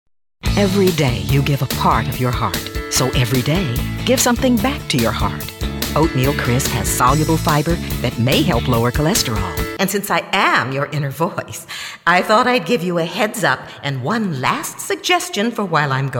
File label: Commercial